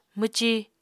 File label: "mwci", Apatani